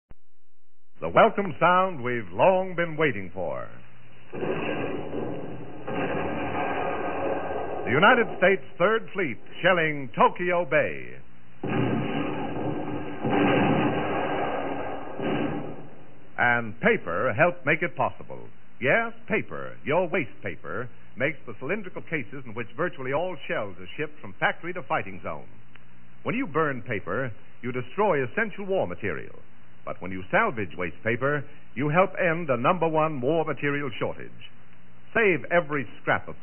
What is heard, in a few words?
psa; ww2 promos and commercials; save paper